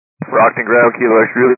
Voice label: 122 725MHz, scanner radio